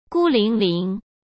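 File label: "孤零零", Mandarin Chinese; hsk; chinese-flashcards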